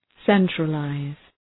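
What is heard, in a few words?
"centralize", English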